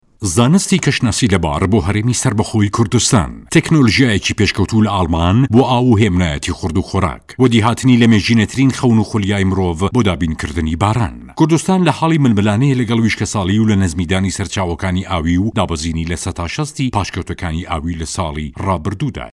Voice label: Sorani Commercial